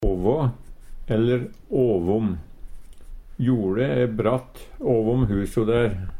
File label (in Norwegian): "åvom", Numedalsmål; ovanfor; Jordet e bratt åvom huso der.; Adverb